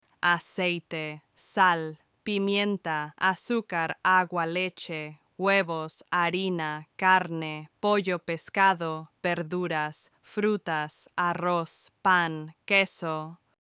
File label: delicious in spanish